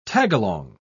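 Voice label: "tagalong", British English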